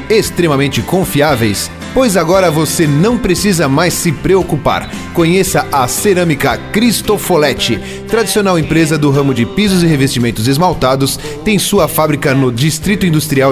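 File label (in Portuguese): exemplo para institucional voz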